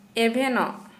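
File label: Santali female awake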